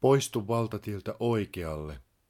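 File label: motorway exit right, Finnish, male; Opas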